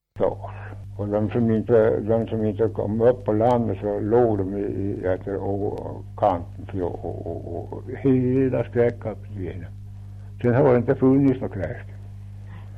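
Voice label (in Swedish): Kopparberg Västmanland, dialekter; Folkminnen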